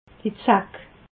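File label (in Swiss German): "zack", Alsatian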